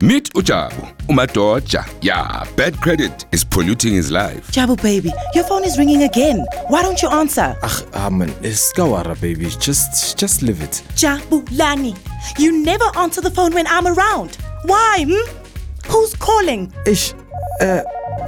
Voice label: Radio Advert - English; NCR Budget Save